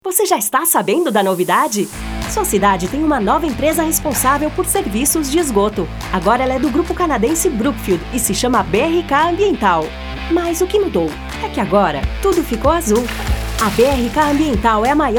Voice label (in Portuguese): Muito Animada, Português